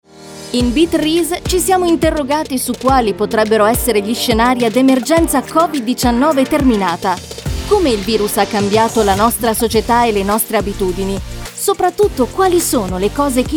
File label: Promo